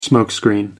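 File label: "smoke screen", British English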